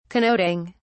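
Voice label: "connoting", British English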